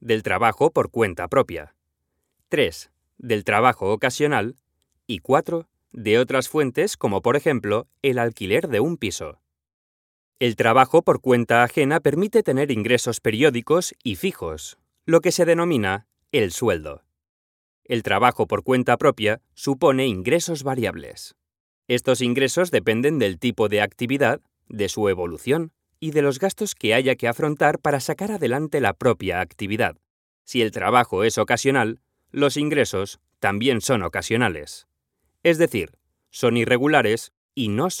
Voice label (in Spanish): E-Learning, El presupuesto de la familia; E-Learning - Natural and Friendly Tone